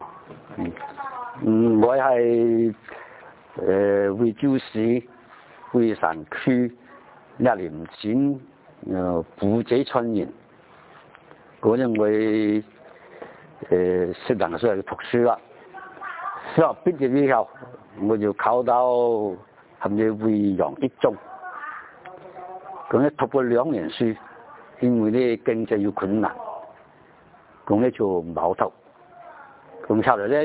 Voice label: huizhou, hakka accent